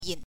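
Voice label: "yin", Mandarin Chinese, neutral tone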